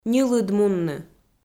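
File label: "ньылыд мунны", Komi, female; audio dict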